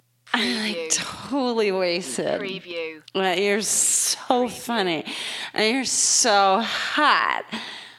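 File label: Female Drunk Talk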